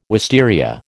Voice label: "wisteria", American

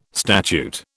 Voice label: "statute", British

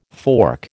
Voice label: "fork", American

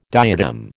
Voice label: "diadem", American

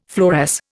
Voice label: "fluoresce", Indian

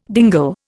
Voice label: "dingle", Indian

